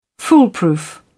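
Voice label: "foolproof", British English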